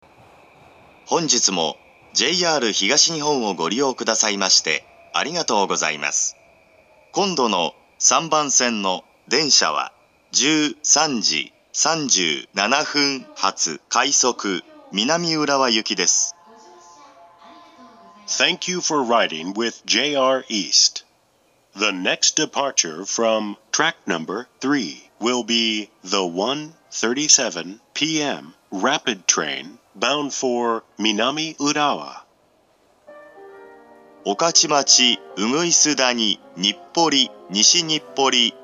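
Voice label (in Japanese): 到着予告放送, 東京駅; ３番線到着予告放送; 京浜東北線; 上野・田端・赤羽・南浦和・大宮方面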